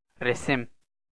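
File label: "resim", Turkish, male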